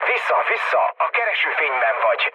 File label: searchlite, mood low, speech hun; War Thunder